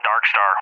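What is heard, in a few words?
"Darkstar", English, USA; CallSign; DCS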